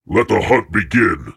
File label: Voices Phrase Hi